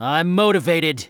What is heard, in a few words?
Motivated; MvC3; Vergil